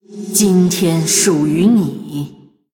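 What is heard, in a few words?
Female, Chinese; Concede; zh; Blood Elf; 投降; 虚空之影瓦莉拉; Valeera Deathknight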